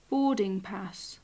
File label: boarding pass, english